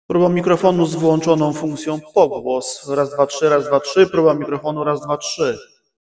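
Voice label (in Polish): Funkcja pogłos, mikrofonów krawatowych; Mozos MX-2; Mozos MX-2 Single oraz Dual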